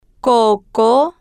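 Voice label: "coco", Spanish, female, slow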